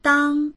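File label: "dang1", Mandarin Chinese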